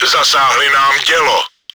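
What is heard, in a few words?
gun damaged, чешской озвучки; Zasáhli nám dělo!; Czech; Нам попали в орудие!; World of Tanks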